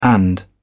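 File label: "and", English, British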